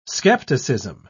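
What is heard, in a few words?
"scepticism", British English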